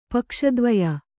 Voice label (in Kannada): "ಪಕ್ಷದ್ವಯ", Kannada